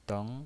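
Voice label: "deng" Mandarin Chinese, second tone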